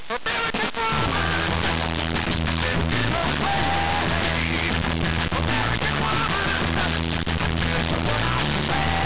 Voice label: woman